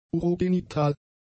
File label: "urogenital", German; adjectives